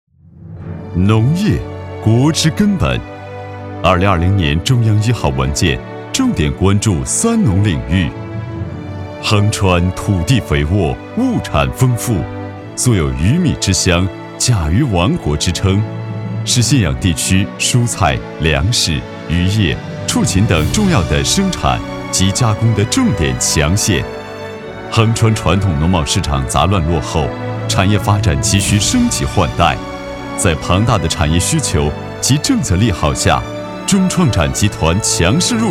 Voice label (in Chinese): 男 农业; 中创展集团